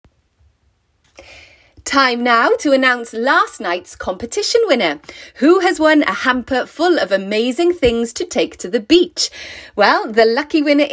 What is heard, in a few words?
English version